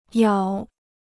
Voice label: "咬", Chinese

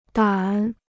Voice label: "胆", Chinese

